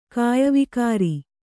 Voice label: "ಕಾಯವಿಕಾರಿ", Kannada

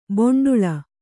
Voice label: "ಬೆಂಡೇಳು", Kannada

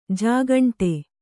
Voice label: "ಜೇಗಂಟೆ", kannada

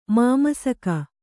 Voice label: "ಮಾಮಸಕ", Kannada

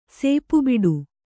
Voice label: "ಸೇಪುಬಿಡು", Kannada

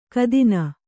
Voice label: "ಘಾತಿನಿ", kannada

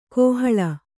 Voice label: "ಕೋಹಾಳೆ", Kannada

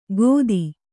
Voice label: "ಗೋತಿ", Kannada

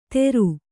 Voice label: "ತೇರು", Kannada

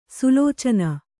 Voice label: "ಸುಲೋಚನ", Kannada

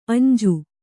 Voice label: "ಅಂಜಿ", kannada

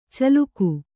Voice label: "ಚಿಲಕೆ", Kannada

